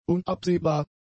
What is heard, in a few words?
"unabsehbar", German; adjectives